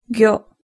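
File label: "gyo", Japanese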